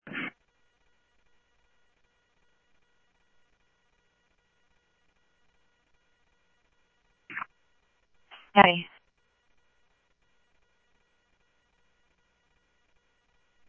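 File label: Sheriff Lake Patrol, scanner audio; MCSO Lakes 1 SheriffLakePatro; ThompsonPeakScot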